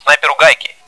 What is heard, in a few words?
sniper down, bot; cstrike